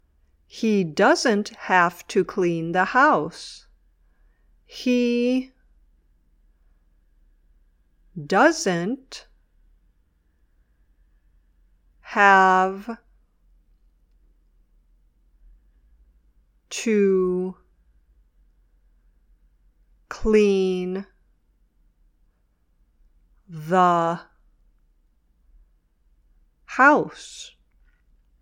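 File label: "dictation", English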